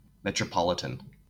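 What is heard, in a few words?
"metropolitan", US English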